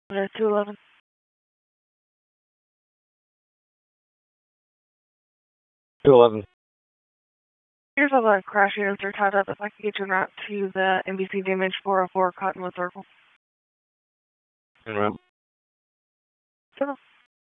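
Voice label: Police Northeast District Disp, radio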